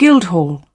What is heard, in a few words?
"guildhall", British